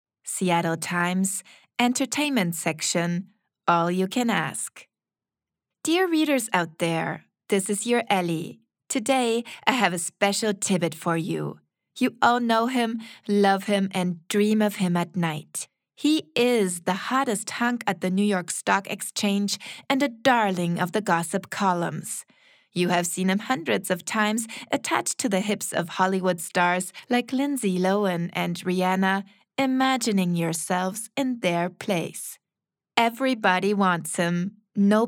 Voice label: Demo Englisch kurz

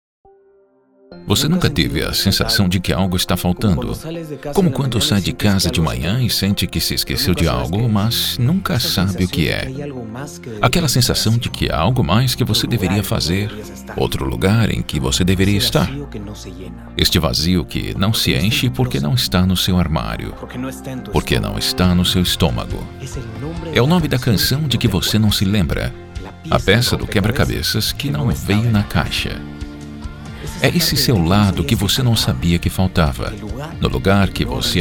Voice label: Peru PTBR

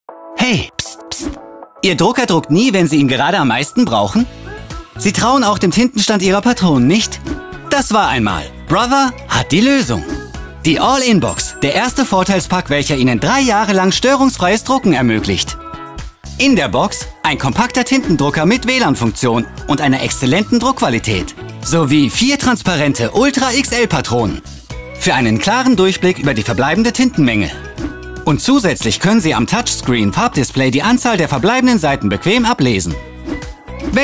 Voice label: GER Online Spot; Brother

